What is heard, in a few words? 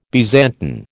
"Byzantine", American